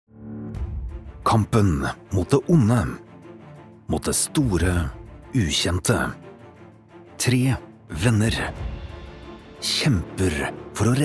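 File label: no movie trailer, Norwegian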